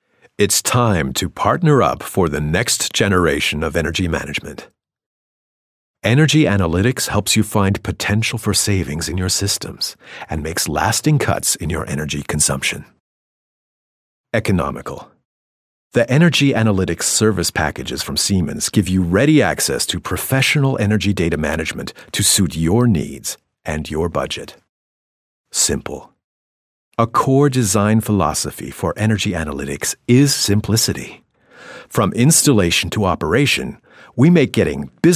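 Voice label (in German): American English Freundlich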